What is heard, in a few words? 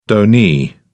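"donee", British English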